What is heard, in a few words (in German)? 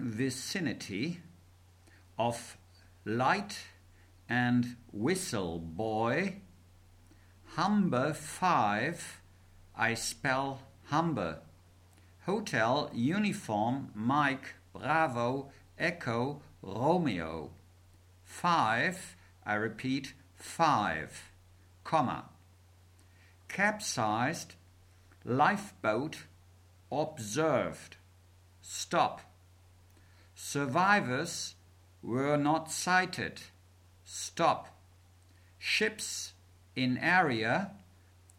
Seefunktext